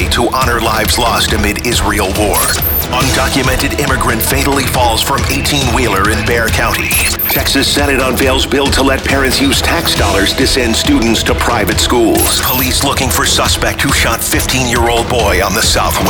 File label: radio news talk men; radio imaging